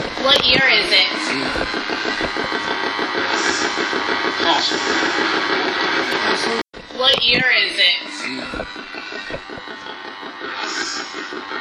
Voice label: radio voices